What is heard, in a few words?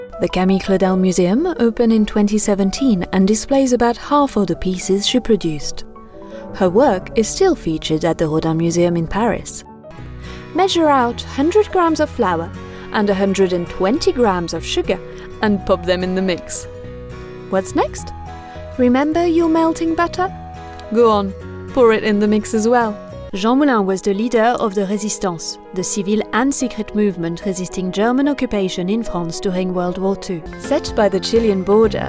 Narration ENG